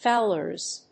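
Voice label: "Fowlers", English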